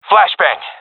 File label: throwing flashbang, player vo professional epic; Counter Strike Model Pack; CS:GO